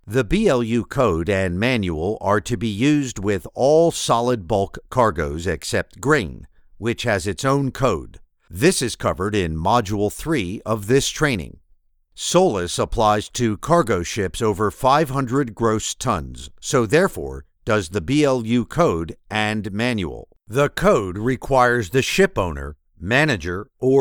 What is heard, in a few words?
e-learning